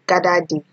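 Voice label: "gader dey", Nigerian Pidgin, female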